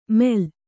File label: "ಮೇಲ್", Kannada